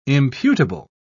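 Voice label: "imputable", British English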